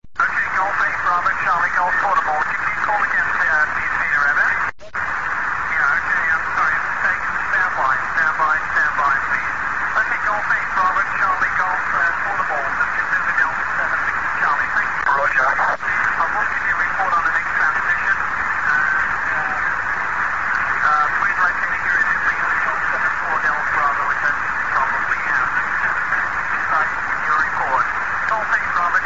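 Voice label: amateur radio contact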